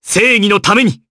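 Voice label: voice, Japanese; Clause; kingsraid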